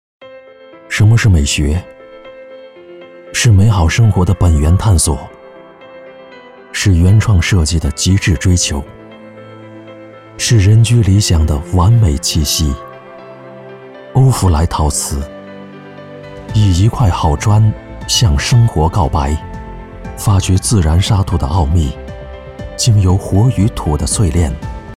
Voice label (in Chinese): 形象片品质感; 欧福莱